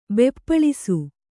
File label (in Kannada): "ಬೆಪ್ಪಳಿಸು", Kannada